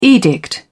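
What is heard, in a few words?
"edict", British English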